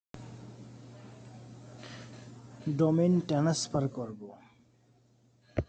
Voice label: voice message